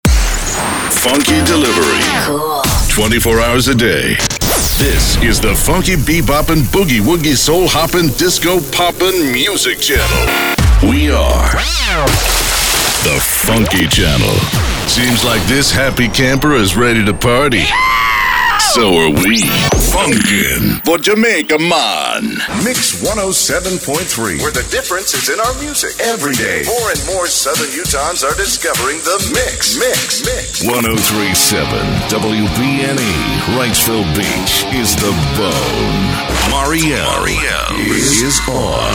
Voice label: Radio Voice Imaging